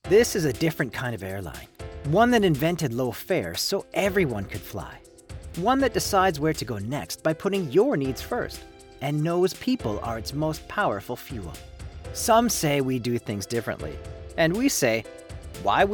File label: Ad w music; Jet Blue